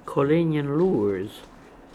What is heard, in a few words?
"Kolenian Loors", pronounciations; Fourth Way; Beelzebub